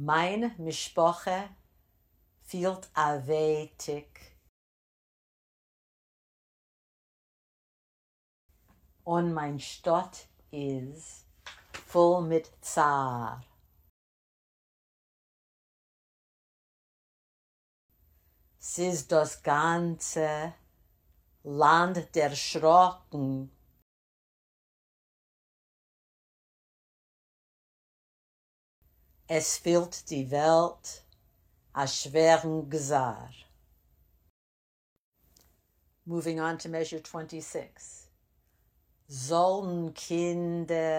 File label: Khhob dem gloybn pronunciation drill; Listen and repeat